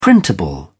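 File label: "printable", British English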